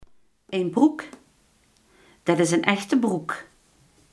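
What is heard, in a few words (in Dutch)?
iemand die in zichzelf gekeerd is, Limburgse gesproken woordenboeken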